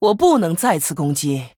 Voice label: Female Human ERROR I ATTACKED, zh